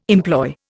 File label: "employ", Indian